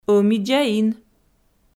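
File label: "ӧмидзаин", Komi, female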